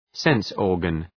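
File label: "sense-organ" English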